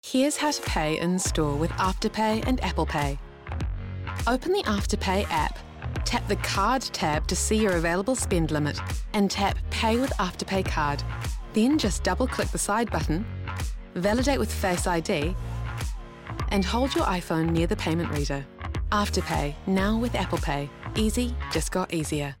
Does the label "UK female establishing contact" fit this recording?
No